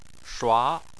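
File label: "shua" tone 2, Chinese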